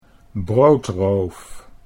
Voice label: "broodroof", Dutch

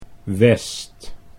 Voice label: "west", Dutch

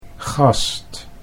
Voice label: "gast", Dutch

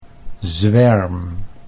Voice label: "zwerm", Dutch

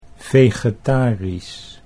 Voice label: "vegetarisch", Dutch

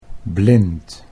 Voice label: "blind", Dutch

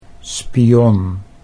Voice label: "spion", Dutch

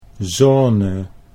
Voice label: "zone", Dutch

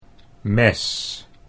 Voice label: "mes", Dutch

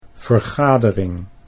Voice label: "vergadering", Dutch

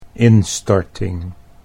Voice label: "instorting", Dutch

